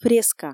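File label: "фреска" Komi, female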